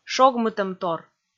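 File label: "шогмытӧмтор", Komi, female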